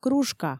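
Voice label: "кружка", Komi, female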